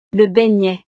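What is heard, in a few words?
"le beignet", French; snacks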